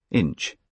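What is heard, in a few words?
"inch", British English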